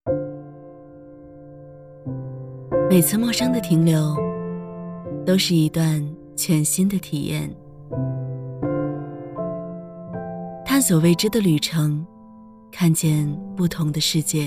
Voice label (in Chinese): 女; 华畅医疗